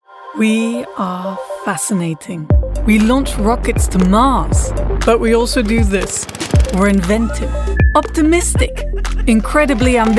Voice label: Commercial ENG